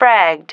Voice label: "fragged", female